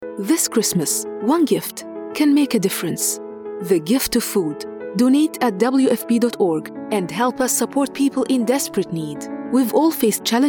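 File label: Arabic English, female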